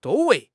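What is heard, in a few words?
"zhou1 wei2", Mandarin Chinese; HSK4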